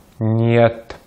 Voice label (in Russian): "нет", Russian